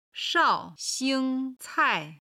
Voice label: "shao4xing1cai4", chinese